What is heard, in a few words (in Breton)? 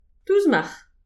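"tousmach", Breton; petit precis de prononciation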